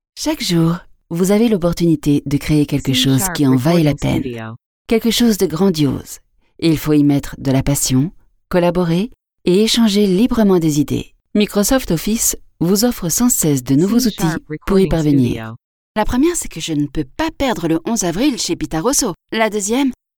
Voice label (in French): French Female